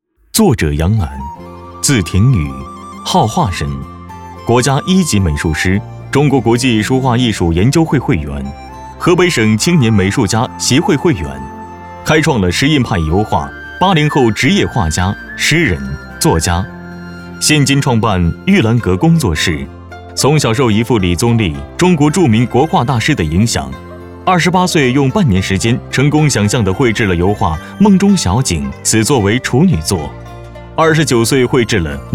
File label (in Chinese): 男 人物介绍 宣传